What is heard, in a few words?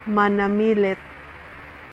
Cebuano